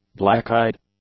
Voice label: "black-eyed", English; adjectives